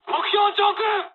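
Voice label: near waypoint mood high, speech japanese; War Thunder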